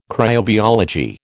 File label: "cryobiology", American